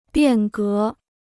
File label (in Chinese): "变革", Chinese